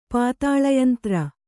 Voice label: "ಪಾತಾಳಯಂತ್ರ", Kannada